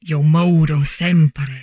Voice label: humanoid portrait female; Stellaris; humanoids